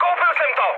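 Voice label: aircraft destroyed, Czech, mood high; speech; War Thunder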